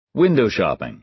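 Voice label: "window-shopping", American English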